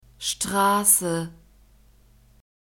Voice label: "strasse", German; alphabet

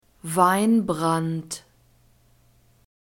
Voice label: "weinbrand", German

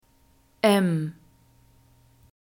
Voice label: "m", German

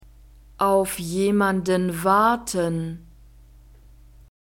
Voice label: auf jemanden warten, German; nouns declension accusative

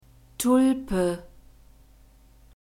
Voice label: "tulpe", German; nouns gender feminine

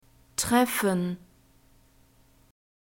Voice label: "treffen", German; verbs present tense irregular

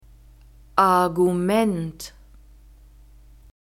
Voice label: "Argument", German

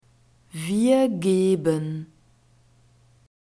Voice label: "wir geben", German

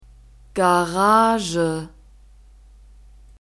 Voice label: "garage", German; phonetic script grouped consonants

